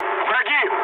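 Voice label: Russian enemy detected mood low, speech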